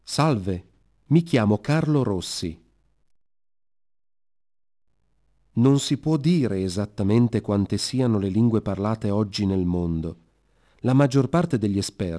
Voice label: italian

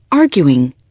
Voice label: arguing

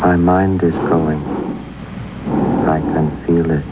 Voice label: confused

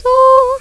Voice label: Solfege, Claire's Voice; Opcode Systems

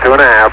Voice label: chat; lander

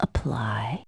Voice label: "apply", sultry; commands

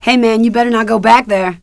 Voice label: not go back, female

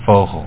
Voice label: bird, Dutch; WordMatch

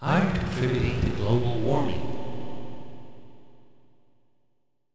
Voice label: sun speak; animwave

